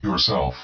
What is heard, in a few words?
okamoid yourself dry, powerful commander robot voice; Leevaudio - Okamoid